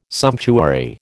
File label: "sumptuary", American